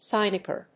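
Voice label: "sinecure", English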